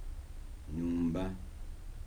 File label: "house", Kibembe